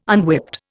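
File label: "unwhipped", Indian English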